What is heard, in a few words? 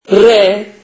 turkish alphabet r